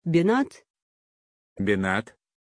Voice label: pronunciation Beñat, Russisch